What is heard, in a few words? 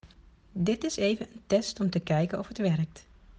Test voicenote